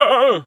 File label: Wizard Pain